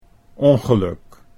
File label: "ongeluk", Dutch